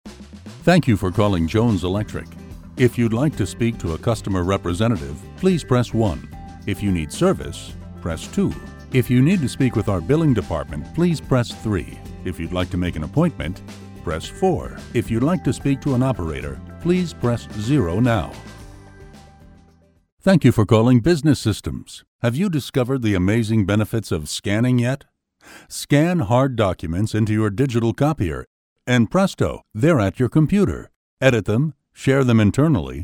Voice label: Phone